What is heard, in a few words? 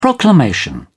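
"proclamation", English, British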